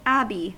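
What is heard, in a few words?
"abbey" US English; pronunciation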